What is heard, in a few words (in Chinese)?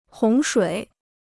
"洪水", Chinese